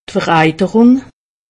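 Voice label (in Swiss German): "vereiterung", Alsatian; medecine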